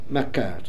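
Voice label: "Makar", pronunciations; Fourth Way; Beelzebub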